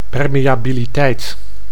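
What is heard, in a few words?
"permiabiliteit", Dutch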